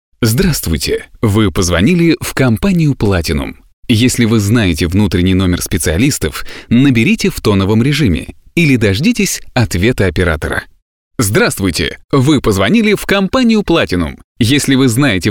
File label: ivr